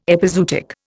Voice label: "epizootic", Indian